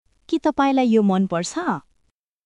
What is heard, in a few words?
Nepali, basic phrases